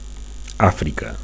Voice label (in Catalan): "africa", Catalan